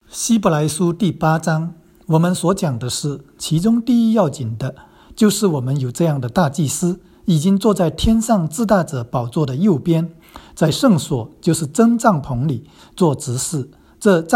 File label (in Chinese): 来08 经文 国语; NT 新約; Mandarin